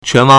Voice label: "quan2wang2", Chinese